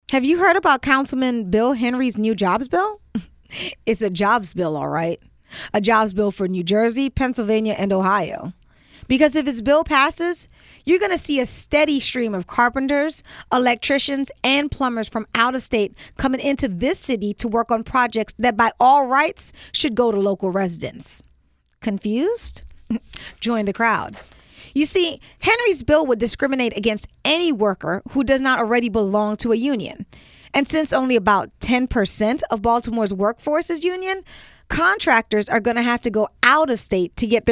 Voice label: Radio Ad